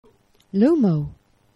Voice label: "lumo", Esperanto; geneva